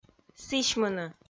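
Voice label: "сісьмыны", Komi, female; audio dict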